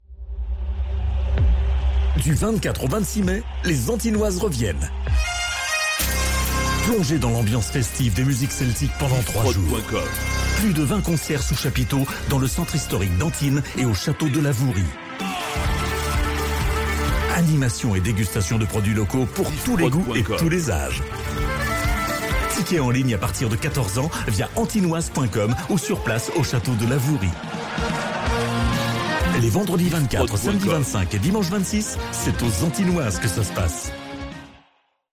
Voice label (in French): Radio Spot, publicite; Radio 4910; Les Anthinoises